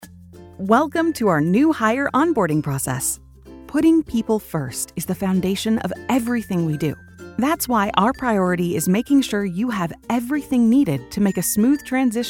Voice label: Business eLearning